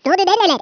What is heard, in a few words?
"fatality", Norwegian; Speech; 11khz